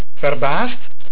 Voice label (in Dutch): "Verbaasd", Dutch